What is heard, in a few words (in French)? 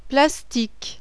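"PLASTIQUE", French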